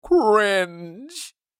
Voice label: Cringe meme voice male

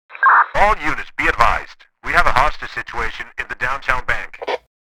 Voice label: Police radio chatter all units be advised

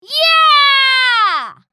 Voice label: "Yeah" excited, girl child kid

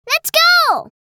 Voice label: "Lets go", girl child kid

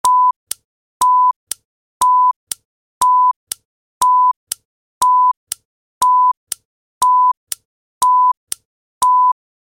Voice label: Game show countdown from ten; film and tv

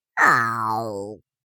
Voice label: Minion sadness voice

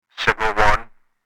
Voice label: Police radio chatter signal

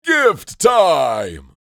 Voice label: Gift time santa claus voice

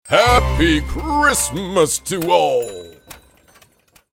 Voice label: Happy christmas to all jingle santa

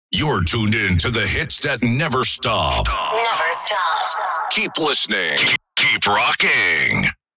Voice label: Vocals radio voice sweeper hits that never stop

